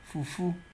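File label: "fufu", Nigerian Pidgin, male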